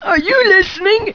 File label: annoyed; war2; humans; gnomish flying machine; Are you listening?; alliance